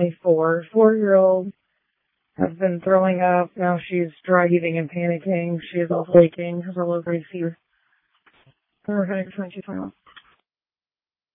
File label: Ambulance, dispatch; Denver